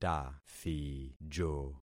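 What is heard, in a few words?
"tathijo", concatenated syllables; Affixing experiment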